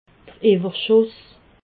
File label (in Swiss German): "iwwerschuss", alsace